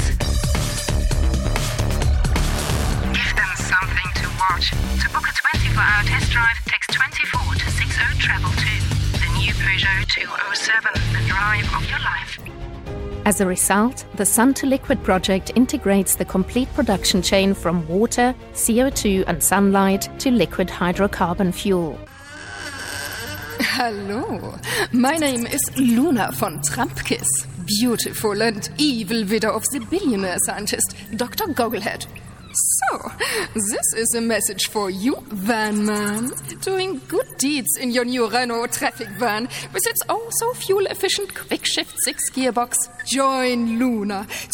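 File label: German, Accented English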